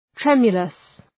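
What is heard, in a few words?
"tremulous", English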